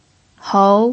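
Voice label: "hou" second tone, pinyin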